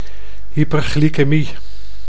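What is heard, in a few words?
"hyperglycemie", Dutch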